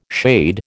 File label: "shade", American